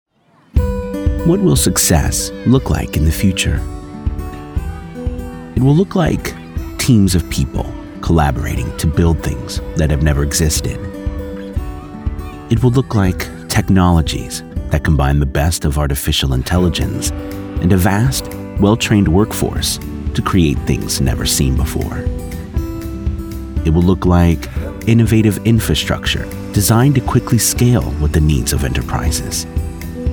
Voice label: Calm Authentic Eloquent Approachable Articulate Mid 30s Male Storyteller